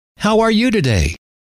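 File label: how are you today, male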